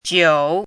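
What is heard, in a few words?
"jiu3", Mandarin Chinese syllable, pinyin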